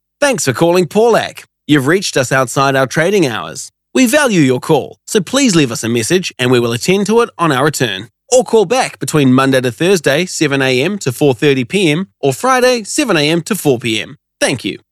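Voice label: New Zealand phone voice, male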